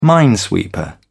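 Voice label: "minesweeper", British English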